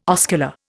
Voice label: "oscular", Indian